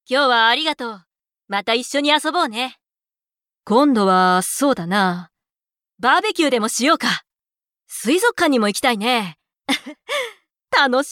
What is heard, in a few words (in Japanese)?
akarui